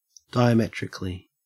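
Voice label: "diametrically", Australian English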